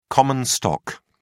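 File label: "common stock", British English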